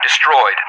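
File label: "destroyed", English; DCS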